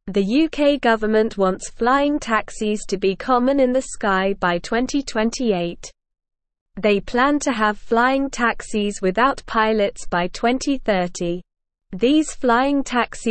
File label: English Lower Intermediate SLOW Reading; UK Plans for Flying Taxis by 2028